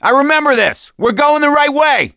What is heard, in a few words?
misc directional, voice; gambler